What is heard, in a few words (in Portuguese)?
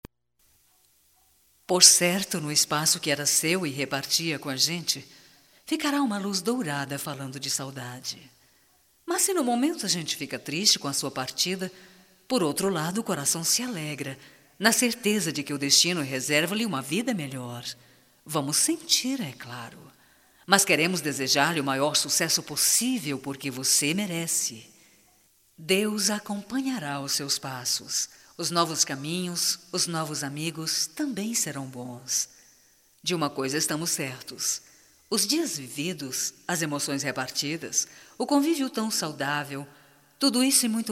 DESPEDIDA - F, Só Voz; fem; Telemensagens 2017